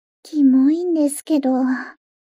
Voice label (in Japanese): mob 女子 台詞